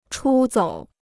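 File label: "出走", Chinese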